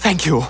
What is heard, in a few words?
"thank you"; stewie; expletives